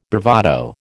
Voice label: "bravado", American